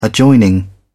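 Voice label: "adjoining", British English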